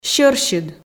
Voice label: "сьӧрсьыд", Komi

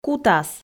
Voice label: "кутас", Komi

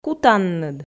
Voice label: "кутанныд", Komi

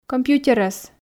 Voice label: "компьютерӧс", Komi

